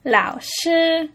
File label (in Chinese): "lao3 shi1", Chinese; lǎoshī; 老师